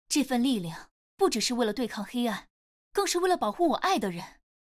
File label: zh-cn; kaisa